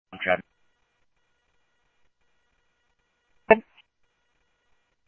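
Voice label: police radio dispatch, Scottsdale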